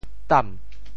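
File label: "tam2", Teochew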